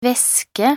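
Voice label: "veske", Norwegian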